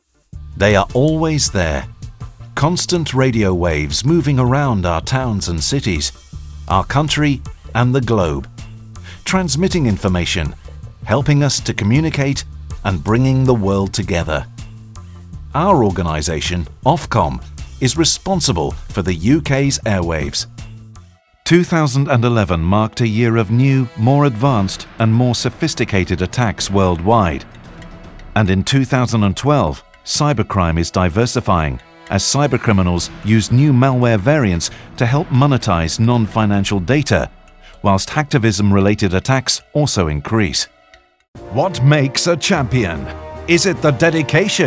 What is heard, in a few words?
Voiceovers UK Showreel